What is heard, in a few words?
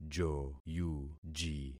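"joyuji", concatenated syllables; Affixing experiment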